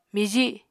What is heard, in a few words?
"miji", Apatani